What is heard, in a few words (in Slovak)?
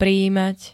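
"prijímať", Slovak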